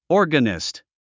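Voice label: "organist", English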